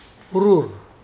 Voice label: laugh, Mokilese